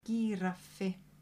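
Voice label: "gíraffi", Icelandic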